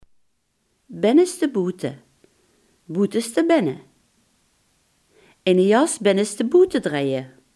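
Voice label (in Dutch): "binnenstebuiten" Limburgish dialect word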